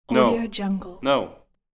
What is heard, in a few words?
male voice saying no